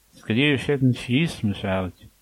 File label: write, manx